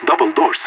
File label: doubledoors, radio; LEET